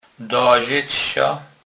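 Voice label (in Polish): "dazec", Kurpie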